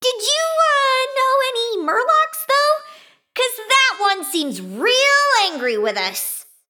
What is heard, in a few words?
Female Mecha Gnome; Pre Mission